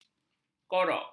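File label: "korok", Malay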